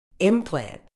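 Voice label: "implant", British English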